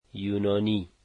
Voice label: "Greek", dari

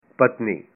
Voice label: wife, punjabi

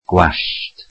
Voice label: welsh hair

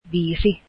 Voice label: finnish five

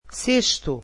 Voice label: "sixth", Portuguese

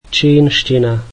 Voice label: "Chinese", slovak

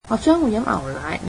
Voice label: cantonese I will drink milk

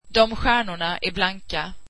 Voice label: those stars are shiny, swedish

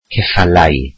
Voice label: welsh horses

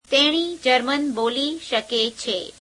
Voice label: gujarati she can speak German; [3rd pronoun + verb]

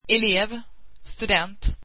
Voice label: student, swedish

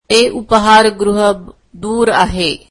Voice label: marathi that restaurant is far

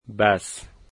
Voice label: "bus", dari

